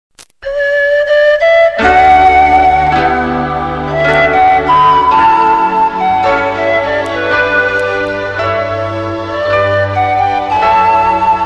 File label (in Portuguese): RELIGIOSO RECUPERACAO FEM, Telemensagens Gospel; Telemensagens 2017